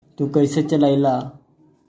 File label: Tu kaise chalila?, Bhojpuri; How did you drive?